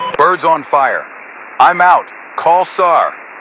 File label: Radio pilot Ejecting